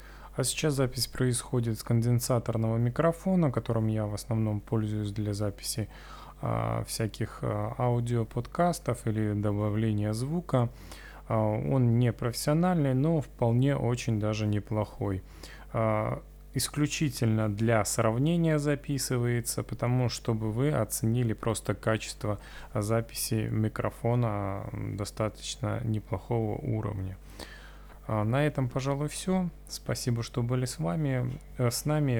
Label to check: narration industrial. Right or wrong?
Wrong